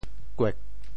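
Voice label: "kuek4", Teochew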